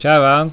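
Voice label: Vietnamese, good morning sir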